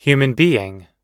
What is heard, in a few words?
"human being", US English